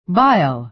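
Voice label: "bile", American English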